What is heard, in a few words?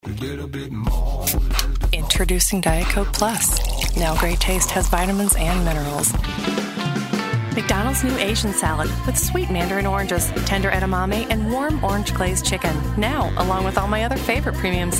Commercial